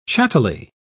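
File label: "chattily", British English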